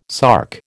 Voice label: "sark", American

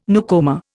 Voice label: "newcomer", Indian

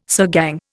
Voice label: "sirgang", Indian

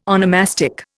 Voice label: "onomastic", Indian

